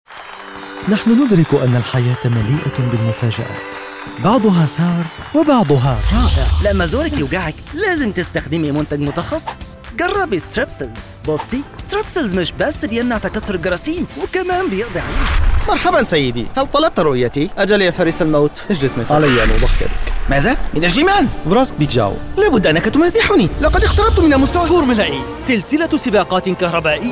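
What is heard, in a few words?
Arabic, male, commercial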